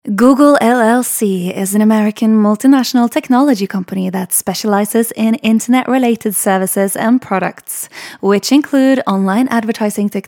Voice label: female Norwegian English with Norwegian accent informative